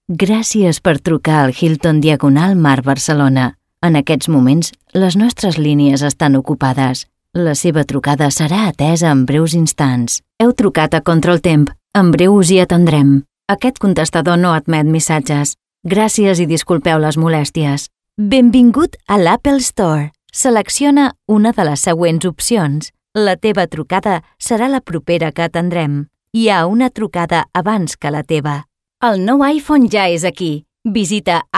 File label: catalan ivr